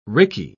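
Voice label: "rickey", British English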